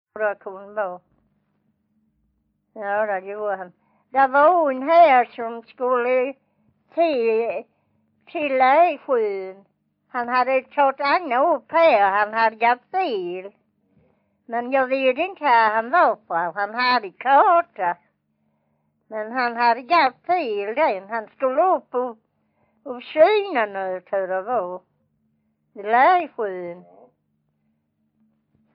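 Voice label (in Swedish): Vånga Skåne, dialekter, svenska sagor; Folkminnen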